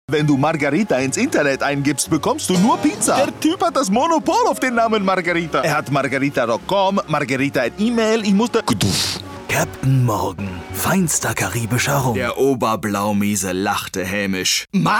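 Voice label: German Characters; GoLocalise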